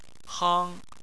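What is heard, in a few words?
"hang", Chinese, first tone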